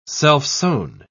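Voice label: "self-sown", British English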